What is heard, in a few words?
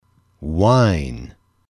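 "wine", male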